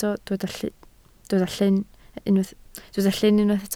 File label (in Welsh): "llyn", Welsh